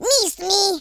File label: missed, French Lover; speech